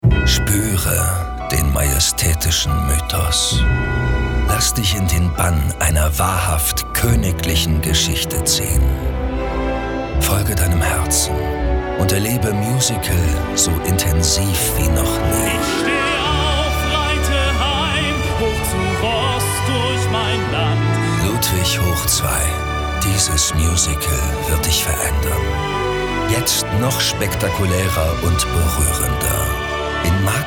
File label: Spot; LUDWIG2; STEP; Advertainment